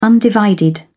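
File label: "undivided", British English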